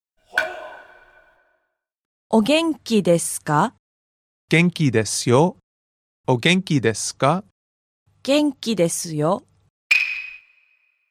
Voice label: dialog; JapanesePod101; Beginner Season 1